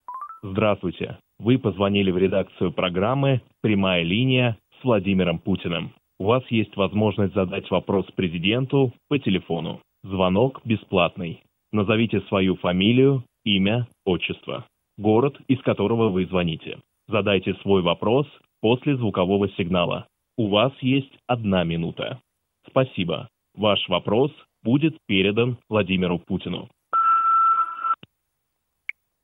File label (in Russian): Автоответчик; Путина